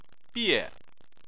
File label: "bie4", chinese